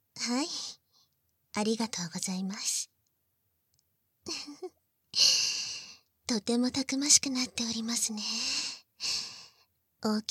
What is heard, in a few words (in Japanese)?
バイノーラルマイク収録 効果音あり大きめ; 効果音大差分